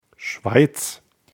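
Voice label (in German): "schweiz", German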